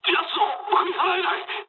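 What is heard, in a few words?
aircraft damaged mood med, speech japanese; War Thunder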